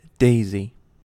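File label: "daisy", US English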